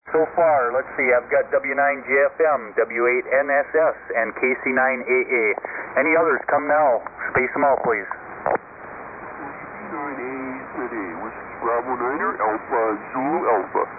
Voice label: SSB Net Check Ins